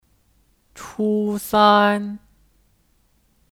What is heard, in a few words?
"初三", Chū sān; Kata benda; SMP kelas 3 (Singkatan dari 初中三年级 Chū zhòng sān niánjí)